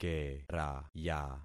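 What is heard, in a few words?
"keraya", concatenated syllables; Affixing experiment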